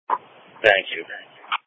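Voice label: Police Patrol Dispatch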